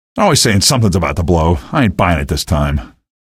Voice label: remarks, male; video games; npc; source engine; episodic; episode 1